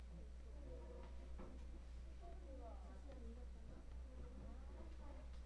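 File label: "handle", spoken word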